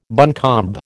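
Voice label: "buncombe", American English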